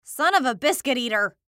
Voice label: Cartoon Voice Female; Cartoon Voices; Blastwave